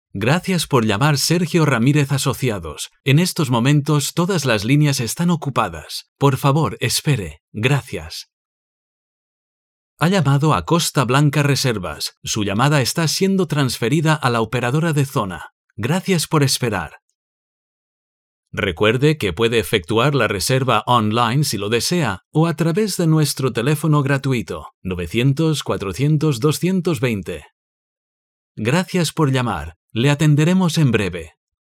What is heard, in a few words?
ivr spanish; spanish voice